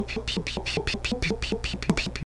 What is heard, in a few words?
labials palatalized, Romanian